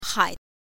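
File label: "hai", Mandarin pinyin, neutral tone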